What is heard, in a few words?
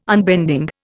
"unbending", Indian